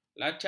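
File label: "lacak", Malay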